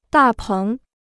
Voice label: "大棚", Chinese

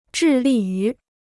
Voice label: "致力于", Chinese

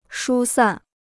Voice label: "疏散", Chinese

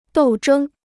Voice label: "斗争", Chinese

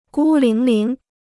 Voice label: "孤零零", Chinese

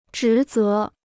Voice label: "职责", Chinese

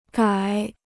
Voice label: "改", Chinese

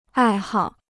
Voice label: "爱好", Chinese

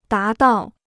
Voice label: "达到", Chinese

